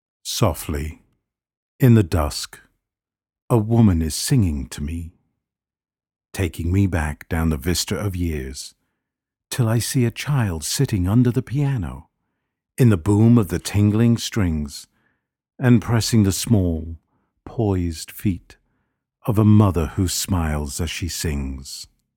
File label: male British voiceover spoken word piano